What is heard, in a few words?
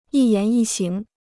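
"一言一行", Chinese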